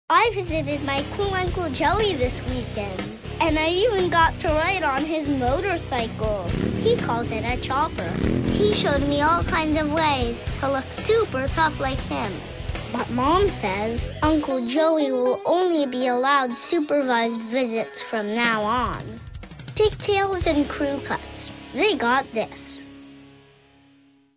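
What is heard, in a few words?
US commercial pigtails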